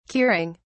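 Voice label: "curing", British English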